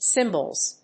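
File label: "Cymbals", English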